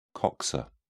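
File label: "coxa", British English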